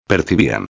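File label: "percibian", Spanish; ortografia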